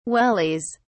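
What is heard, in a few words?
"wellies", British English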